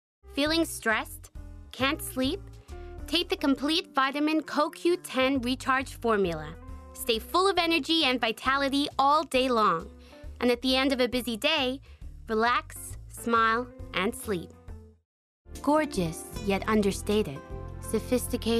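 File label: American, TV commercial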